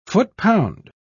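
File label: "foot-pound", British English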